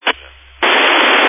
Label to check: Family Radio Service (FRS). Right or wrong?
Right